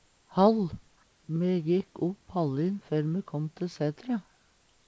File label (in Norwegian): hall, Numedalsmål; Me jekk opp hallin før me kåm te heimsætre.